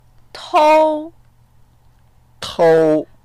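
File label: "tou1", Mandarin Chinese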